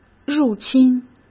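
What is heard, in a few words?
"入侵", Mandarin Chinese; chinese flashcards; hsk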